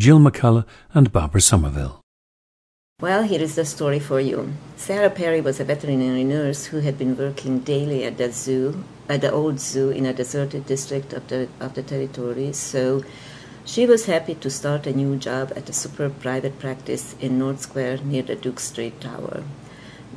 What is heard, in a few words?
hungary, dialects